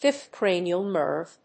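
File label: "Fifth Cranial Nerve", English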